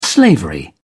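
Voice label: "slavery", British English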